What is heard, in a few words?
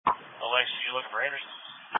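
Police Patrol (Dispatch)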